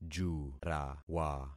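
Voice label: "jurawa", concatenated syllables; Affixing experiment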